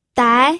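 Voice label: "dai", Mandarin Chinese, second tone; pinyin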